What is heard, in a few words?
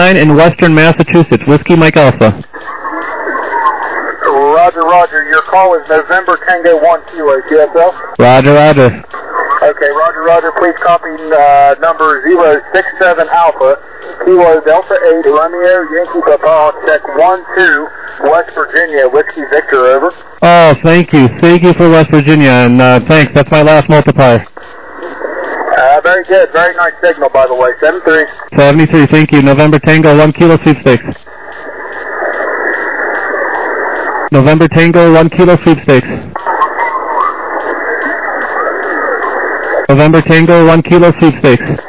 USB, 14MHz